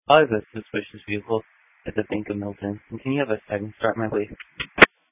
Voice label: Sheriff Dispatch